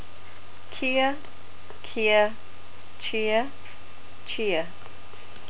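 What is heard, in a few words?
"kea", New Zealand English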